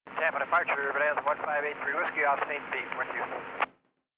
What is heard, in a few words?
chatter; rigs of rods